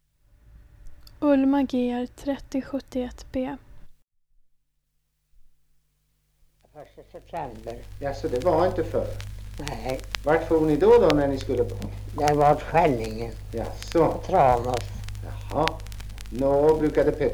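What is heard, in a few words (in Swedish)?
Ekeby Östergötland, dialekter; Folkminnen; Svenska sagor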